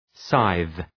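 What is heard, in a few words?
"scythe", English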